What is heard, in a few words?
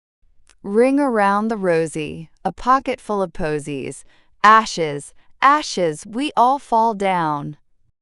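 female US, classic rhymes; Ring Around the Rosie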